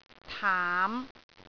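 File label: "taam5", Cantonese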